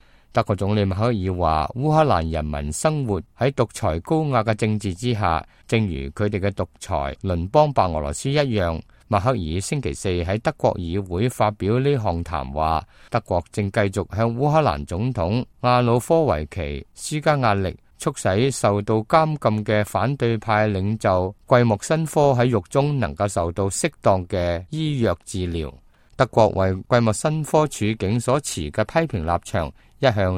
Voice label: cantonese, news